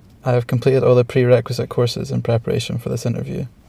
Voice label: interviewee male filler